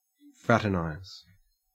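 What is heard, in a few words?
"fraternize", Australian English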